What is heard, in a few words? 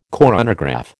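"coronagraph", American English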